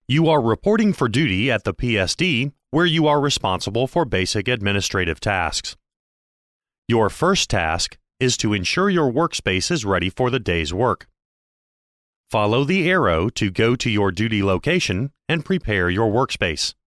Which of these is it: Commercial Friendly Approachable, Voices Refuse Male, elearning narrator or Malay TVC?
elearning narrator